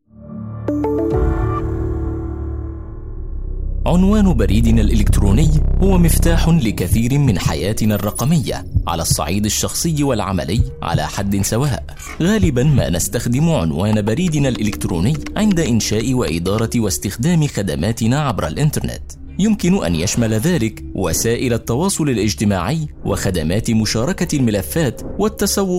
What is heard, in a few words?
Elearning; Voice123